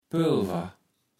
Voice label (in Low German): "Pölver", Platt